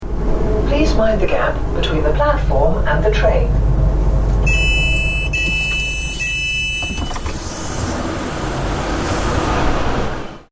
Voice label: Mind The Gap Spoken, Female automated voice on a train saying ‘Please mind the gap’.; PA Announcements